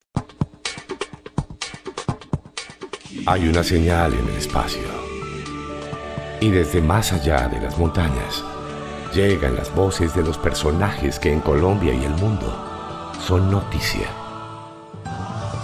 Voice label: spanish latin american narration